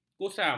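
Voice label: "kusam", Malay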